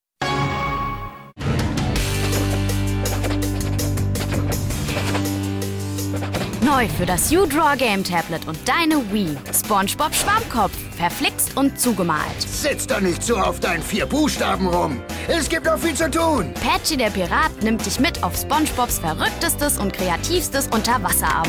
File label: TV-Spot; uDraw; Spongebob